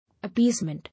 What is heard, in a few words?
"Appeasement", English